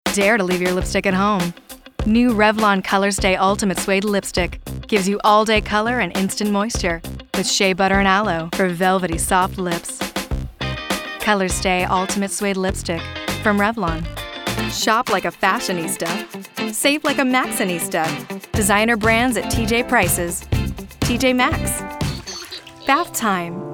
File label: commercial